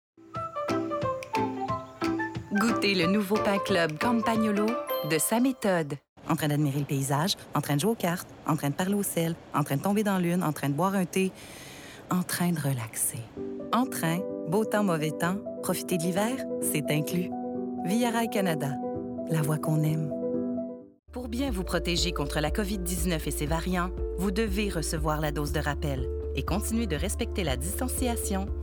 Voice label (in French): voix pub annonceur fr normatif qc naturel amical avec sourire affirmee